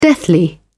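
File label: "deathly", British English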